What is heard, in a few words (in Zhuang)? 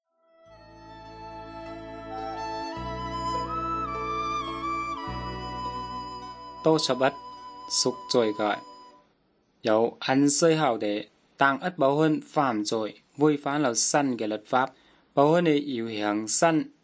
good news zhuang nanning fushu